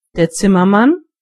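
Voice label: carpenter, German voices words; gcompris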